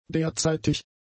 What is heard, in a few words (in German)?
"derzeitig", german; adjectives